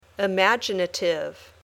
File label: "imaginative", English